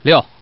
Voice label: Man